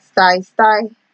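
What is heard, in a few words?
"style style", Nigerian Pidgin, female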